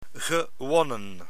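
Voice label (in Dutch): "gewonnen", Dutch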